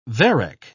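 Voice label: "varec", British English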